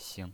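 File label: "xing", pinyin voice, neutral tone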